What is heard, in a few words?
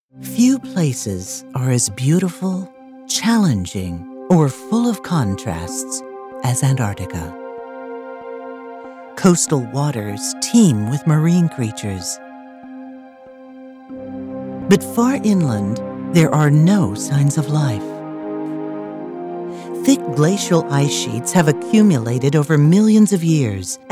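female narration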